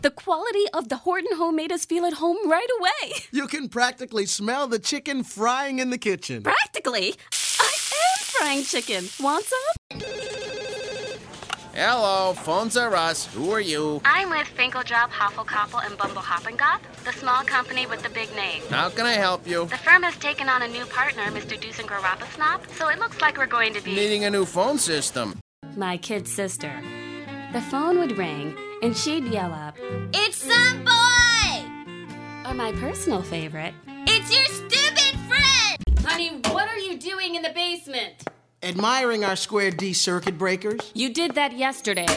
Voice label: Animation